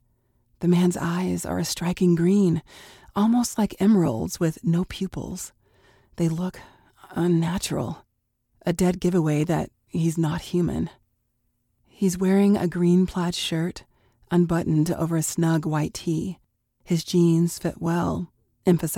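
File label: Paranormal Fiction, male female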